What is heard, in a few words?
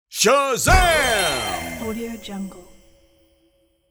male voice shazam with effects